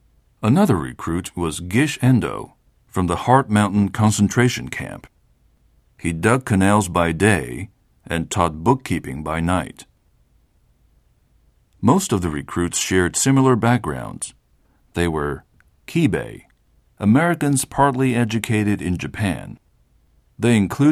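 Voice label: Chinese English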